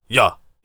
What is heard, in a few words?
warrior conversation emotion agree